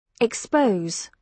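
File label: "expose", British English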